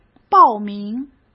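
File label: "报名", Mandarin Chinese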